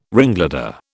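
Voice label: "ringleader", British English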